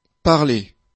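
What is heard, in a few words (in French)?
"parler", French; afrikalan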